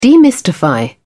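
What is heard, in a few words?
"demystify", British English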